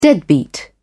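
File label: "deadbeat", en, British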